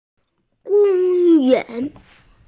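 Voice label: "park", hearing impaired; gong1yuan2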